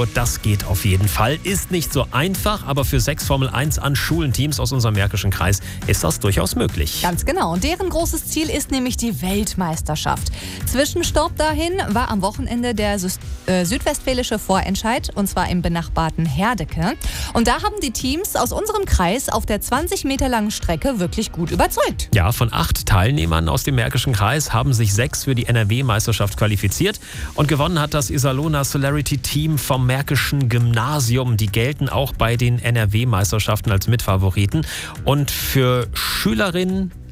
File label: radio